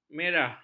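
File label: "merah", Malay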